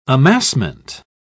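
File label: "amassment", English, British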